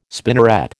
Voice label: "spinneret", American

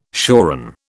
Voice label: "shoran", British

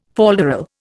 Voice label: "falderal", Indian

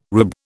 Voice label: "rube", British

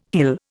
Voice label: "hill", Indian

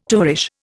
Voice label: "tovarish", Indian

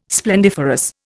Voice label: "splendiferous", Indian

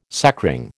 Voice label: "sacring", American

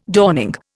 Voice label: "dawning", Indian

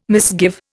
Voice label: "misgive", Indian

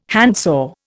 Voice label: "handsaw", Indian

